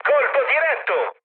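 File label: Italian bomb success mood med, speech; War Thunder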